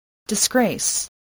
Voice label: "disgrace", spelling